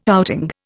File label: "outing", Indian